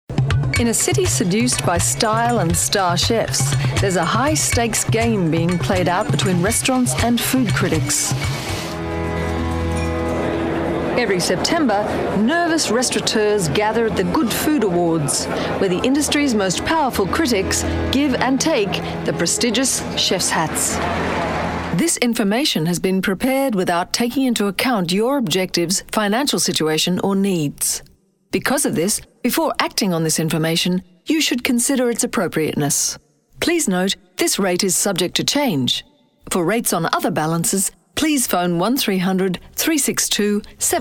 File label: corporate